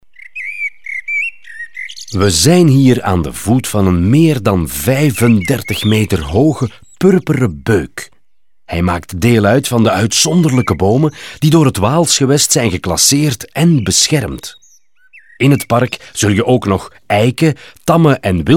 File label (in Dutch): audioguide nl enfant; modave